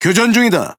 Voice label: Speech Pilots Torpedo Under Attack, KO; World Of Warships